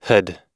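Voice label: "had", American English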